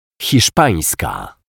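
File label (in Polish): "hiszpanska", Polish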